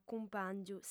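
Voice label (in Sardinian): "cumpàngiu", female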